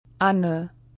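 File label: "Anne", German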